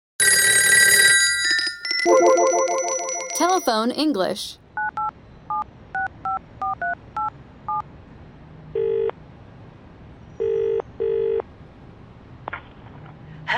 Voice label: phone Conversation; Hot English magazine